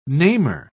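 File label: "namer", British English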